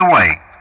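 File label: "away", English; Ka-50; Player Messages; DCS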